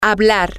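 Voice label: to speak talk, Spanish, female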